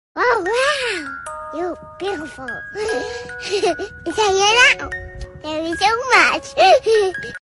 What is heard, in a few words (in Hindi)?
you are kutta you are kamina funny hindi